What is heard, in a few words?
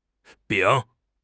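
"丙", Mandarin Chinese; HSK; HSK6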